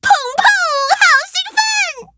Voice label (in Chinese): victory, zh-hant; cookie run